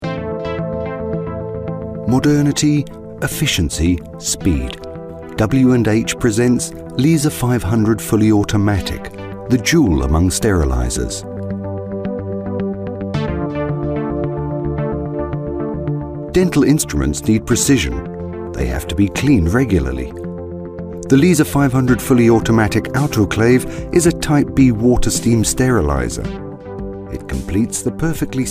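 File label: advert voice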